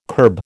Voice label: "kerb", American

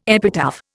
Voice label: "epitaph", Indian

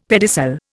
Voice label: "pedicel", Indian; Dictionary

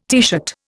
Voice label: "teeshirt", Indian

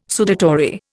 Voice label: "sudatory", Indian

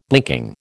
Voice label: "blinking", American

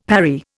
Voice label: "parry", Indian; Dictionary

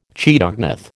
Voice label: "chaetognath", American

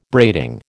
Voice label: "braiding", American

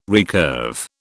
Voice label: "recurve", British

